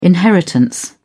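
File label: "inheritance", British English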